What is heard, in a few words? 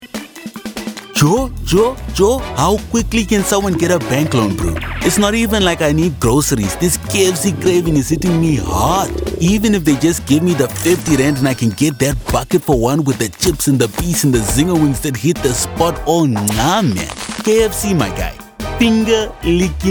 English South African, Male; voice-clips-database